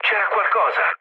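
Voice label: Italian trick mood low, speech; War Thunder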